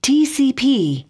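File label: "tcp", English; asterisk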